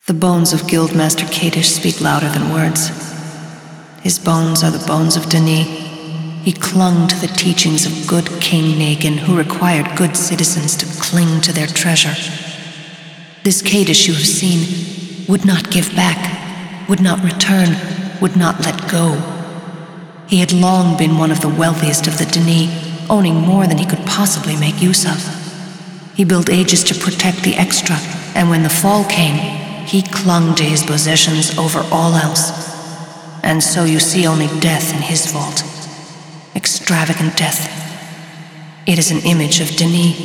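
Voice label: Speech english; Yeesha; Kdsh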